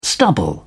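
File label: "stubble", English, uk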